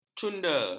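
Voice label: "cunda", Malay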